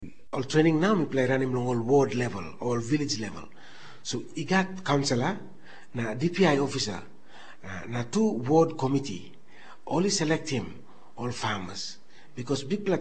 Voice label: Tok Pisin; Mama Graun